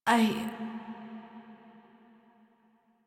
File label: Operator Voice; Warframe; Apostasy Teaser; Chamber; en